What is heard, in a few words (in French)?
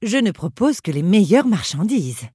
meilleure marchandises, human female; PhoenixCraft-1.16.5; PhoenixNPCPack; minecraft